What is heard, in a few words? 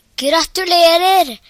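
congratulation, Scottish Gaelic; gcompris-data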